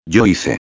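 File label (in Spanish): "hice", Spanish; preterito indefinido; Hacer; Escuta "Hice"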